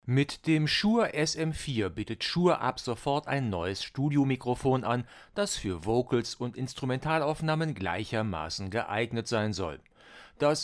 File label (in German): Shure SM4 mit Popfilter 90 Grad seitlich